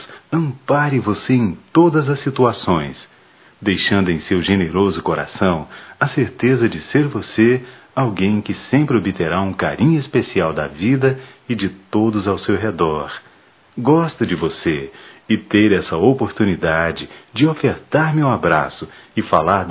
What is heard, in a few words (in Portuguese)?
Dia da Mulher evang m, Só Voz, masc; Telemensagens; Telemensagens 2017